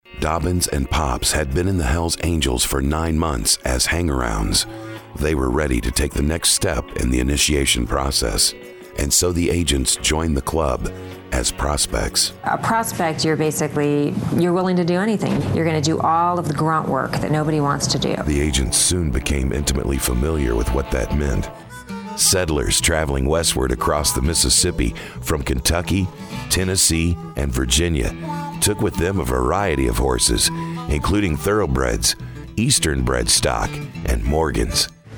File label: men narration